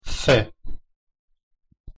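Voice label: pronunciation "c", Spanish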